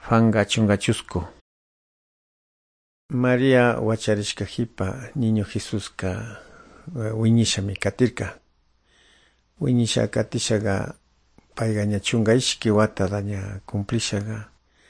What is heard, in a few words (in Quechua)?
good news quichua de calderon